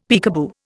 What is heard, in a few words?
"peekaboo", Indian; Dictionary